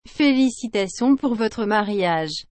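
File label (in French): "Félicitations pour votre mariage", French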